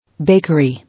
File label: "Bakery", English